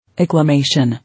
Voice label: "Acclamation", English